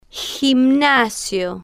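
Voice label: "gimanasio", Spanish, female, slow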